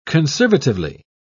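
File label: "conservatively", British English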